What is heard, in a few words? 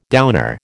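"downer", American English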